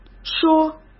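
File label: "说", Mandarin Chinese; chinese-flashcards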